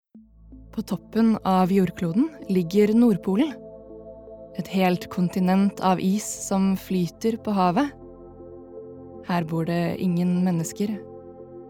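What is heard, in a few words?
Norwegian documentary, norwegian female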